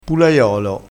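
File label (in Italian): "pulaiolo", dialetto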